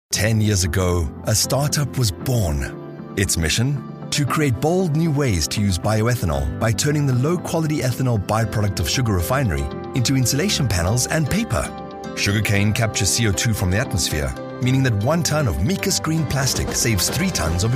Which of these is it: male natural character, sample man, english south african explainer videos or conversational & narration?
english south african explainer videos